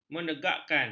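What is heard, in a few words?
"menegakkan", Malay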